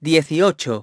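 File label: "eighteen", English; iOS 4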